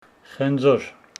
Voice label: Homshetsi apple